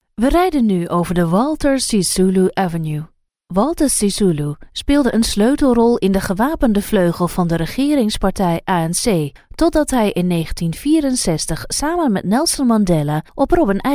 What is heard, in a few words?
dutch voiceover locutora holandesa